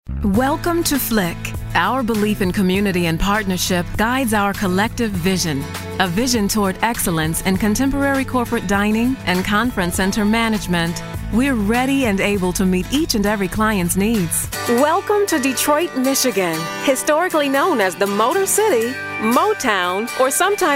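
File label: American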